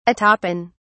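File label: "atopen", English, uk British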